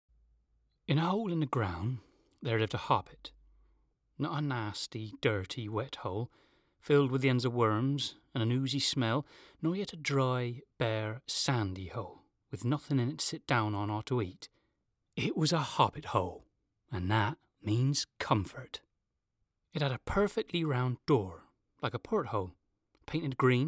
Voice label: Dorset Accent; The Hobbit (Dorset accent)